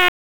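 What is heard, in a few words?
Police Northwest District Tac, radio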